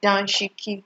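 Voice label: "danshiki", Nigerian Pidgin, female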